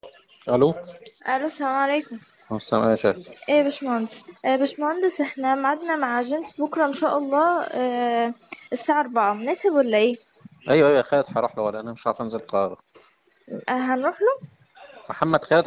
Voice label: Call recording